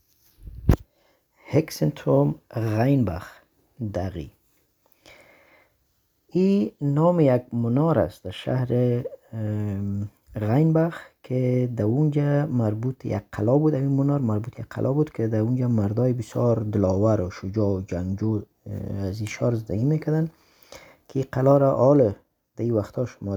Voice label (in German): Afghanisch; Hexenturm Rheinbach